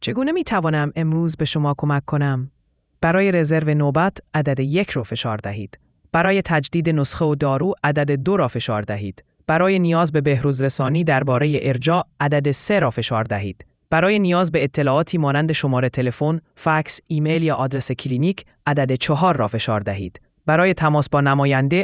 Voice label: farsi